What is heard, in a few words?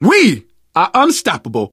hurrah, survivor voice